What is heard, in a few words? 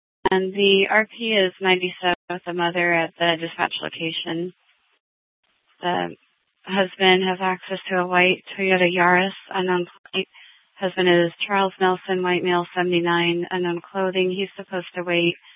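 Police Department Dispatch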